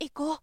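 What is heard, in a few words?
"iko", boy; shonen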